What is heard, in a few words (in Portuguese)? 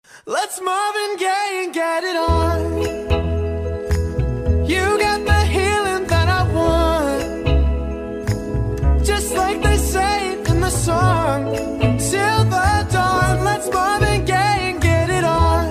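ANIV AMIGO male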